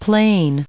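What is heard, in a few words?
"plain", us English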